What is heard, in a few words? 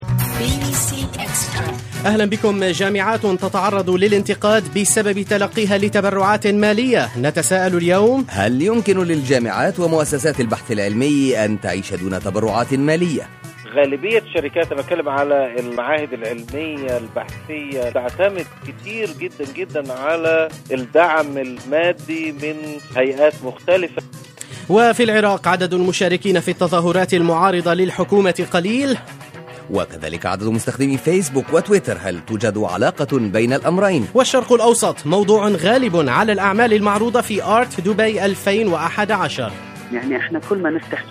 arabic